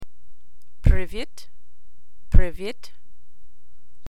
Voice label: Ukranian hello